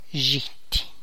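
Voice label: Tlacochahuaya Zapotec; onion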